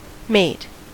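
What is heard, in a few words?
"mate", US English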